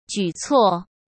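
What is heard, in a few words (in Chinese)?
"举措", Mandarin Chinese; hsk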